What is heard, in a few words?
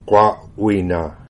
gwawina, kwak'wala; Kwakwaka'wakw